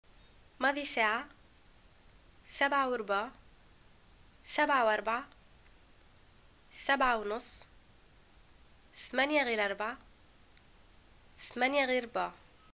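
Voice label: time, Tunisian arabic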